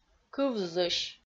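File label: "кывзысь" Komi, female; kpv audio dict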